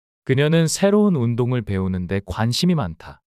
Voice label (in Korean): 문장 남자; 그녀는 새로운 운동을 배우는 데 관심이 많다